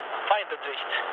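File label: German enemy detected mood low, speech; War Thunder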